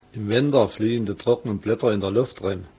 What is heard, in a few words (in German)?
niederhessisch